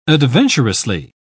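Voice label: "adventurously", British English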